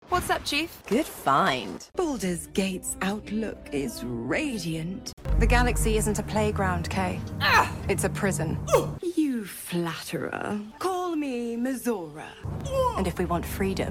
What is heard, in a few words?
Computer Games, female